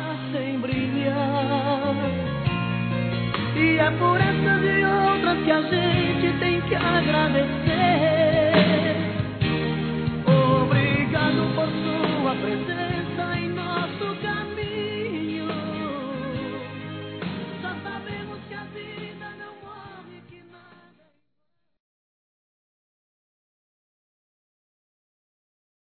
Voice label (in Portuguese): ANO NOVO NEUTRO M; Telemensagens; Natal e Ano novo; masc